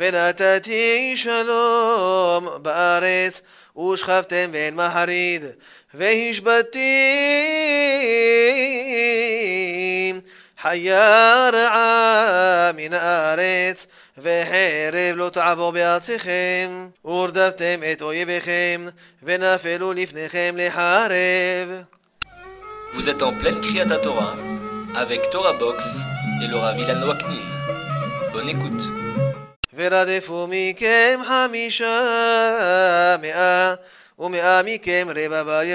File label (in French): Paracha Behoukotai 2e montee marocain; vayikra levitique